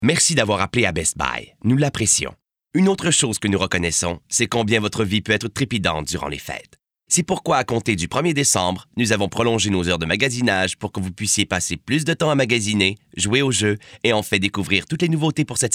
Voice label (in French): Boite vocale; Best Buy